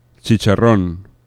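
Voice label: "chicharron", Spanish; laboratori fonetica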